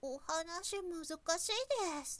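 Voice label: "ohanasimuzukasi", Japanese, woman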